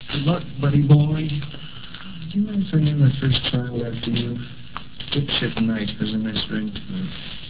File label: kid; "Do you mind if I name my child after you? Dipsh*t Knight has a nice ring to it."